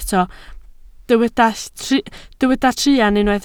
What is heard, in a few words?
"truan", Welsh